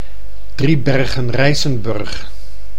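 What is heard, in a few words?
"Driebergen-Rijssenburg", Dutch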